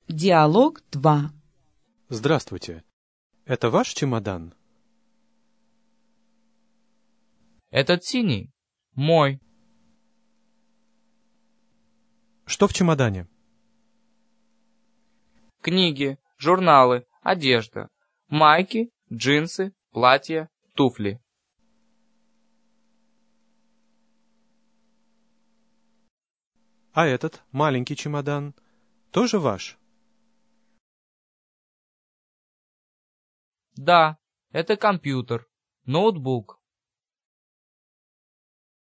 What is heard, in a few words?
dialog, russian